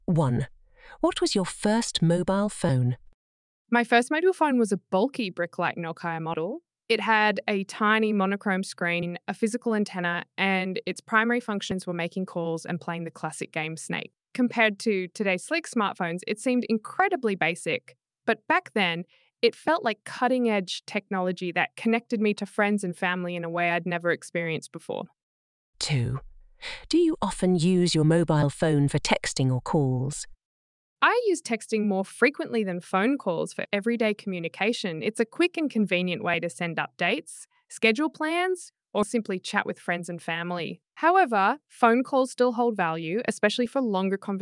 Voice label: Mobile phones, IELTS Speaking Part 1; Chủ đề: Mobile Phone; Bài mẫu IELTS Speaking Part 1